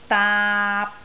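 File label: "daap3", Cantonese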